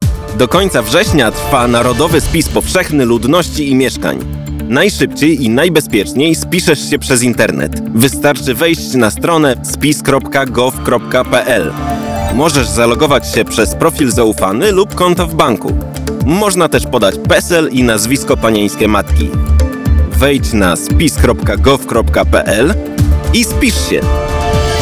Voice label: radio spot